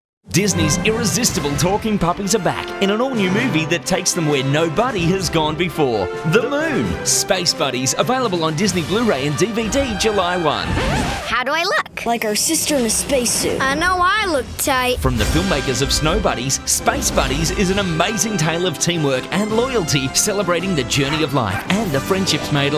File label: Retail Friendly